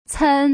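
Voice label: "cen", Mandarin Chinese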